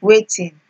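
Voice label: "wetin", Nigerian Pidgin, female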